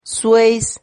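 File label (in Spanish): "zueis", Spanish syllable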